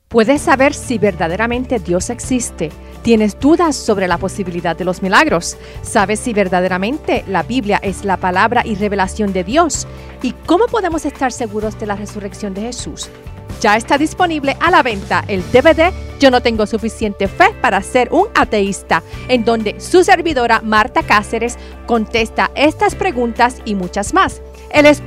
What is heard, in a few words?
RADIO PROMO